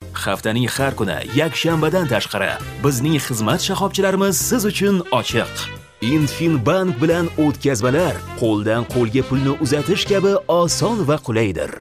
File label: Uzbek